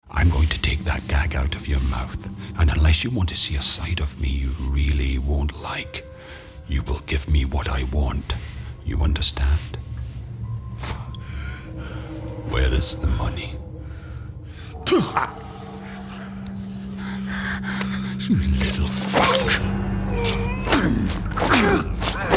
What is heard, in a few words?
gaming, Scottish voice; no patience